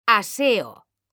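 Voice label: "aseo", Spanish, female; mekanta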